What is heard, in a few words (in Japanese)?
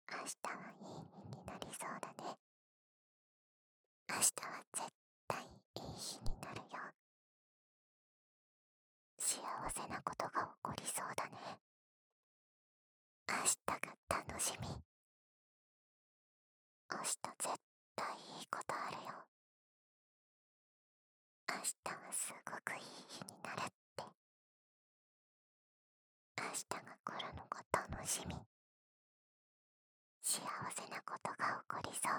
囁き 明るい未来; 左右なし